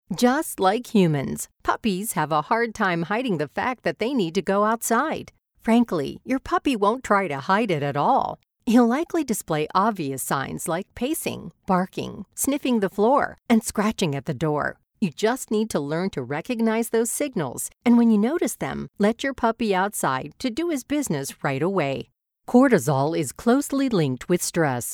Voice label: Explainer